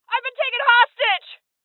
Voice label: Voices Hostage Taken Female

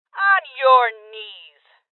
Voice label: Order To Surrender Female, Voices

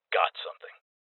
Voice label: Voices Loot Collect Male; rebel cops 2019 gamerip

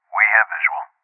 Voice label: Voices EnemySpotted Male

